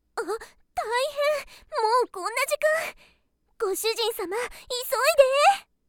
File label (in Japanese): 時報ボイス